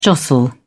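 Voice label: "jostle", British English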